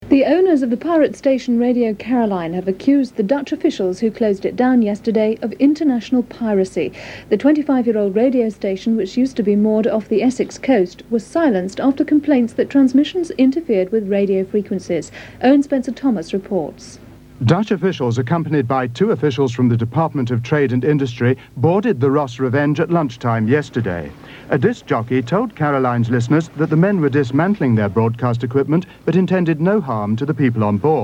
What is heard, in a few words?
news tv audio; anglia